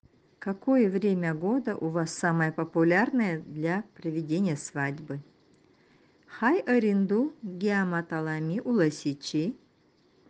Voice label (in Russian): свадьба нанайский